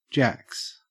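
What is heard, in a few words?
"jacks", Australian English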